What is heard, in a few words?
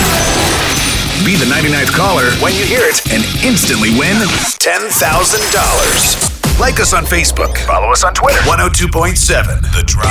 VO Rock; voice-over demos